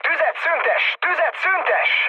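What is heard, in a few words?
player friendly fire, mood med, Hungarian; speech; War Thunder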